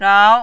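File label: "rao", Mandarin, second tone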